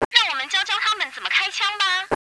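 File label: radio chinese woman; chn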